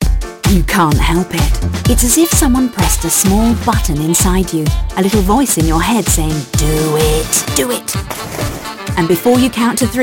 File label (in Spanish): Publicidad, ENGLISH UK FEMALE; commercial; Cacaolat